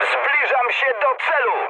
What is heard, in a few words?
near waypoint mood high, speech pol; War Thunder